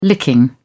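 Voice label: "licking", British English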